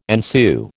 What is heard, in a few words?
"ensue", American